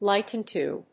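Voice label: "light into", English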